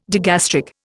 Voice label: "digastric", Indian